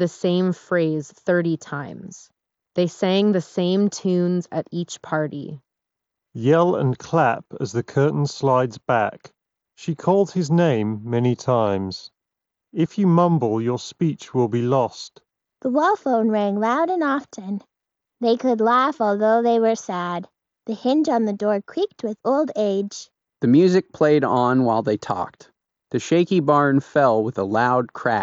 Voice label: Ideal microphone sample; Razer Barracuda X Chroma

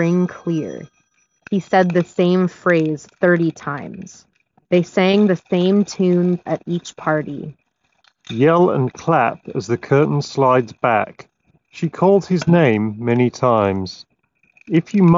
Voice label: Office microphone sample; GN Jabra Elite 7 Active

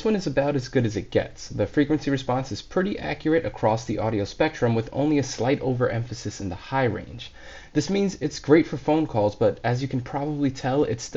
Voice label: Sony WH 1000xm3 mic voice

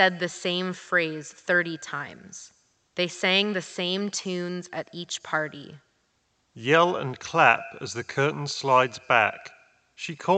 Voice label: Reverb microphone sample; Astro A10 Gen2

